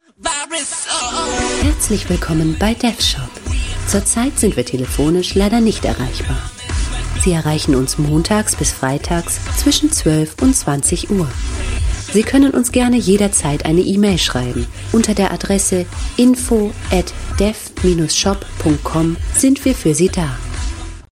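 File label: telephone German female; Telefon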